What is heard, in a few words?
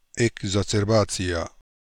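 Ekbarzerbazia Russian, Beelzebub pronounciations; Fourth Way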